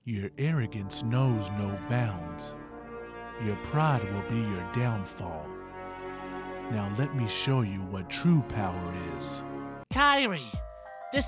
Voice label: Animation